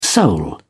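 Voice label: "soul", British English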